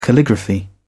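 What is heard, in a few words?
"calligraphy", British English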